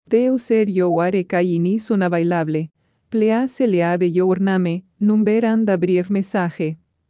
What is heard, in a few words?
vm greeting